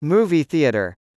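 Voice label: "movie theater", English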